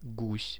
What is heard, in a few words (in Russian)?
"gus", Russian; гусь; birds; RUSSIAN VISUAL DICTIONARY